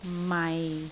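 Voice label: "mai6", Cantonese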